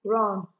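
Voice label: "run", Nigerian Pidgin, female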